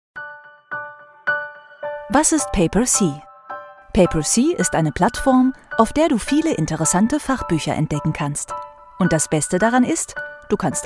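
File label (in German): erklaerf, Sprecherin; Sprecher in Berlin, Bremen, Hamburg, Hannover, Kiel, Lübeck, Lüneburg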